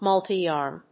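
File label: "multiarm", English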